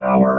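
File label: "hour", robot voice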